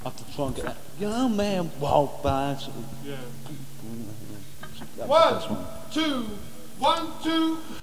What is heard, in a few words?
Studio Chatter; Intro